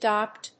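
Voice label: "DPWM", English; Digitally adjusted Pulse-Width Modulation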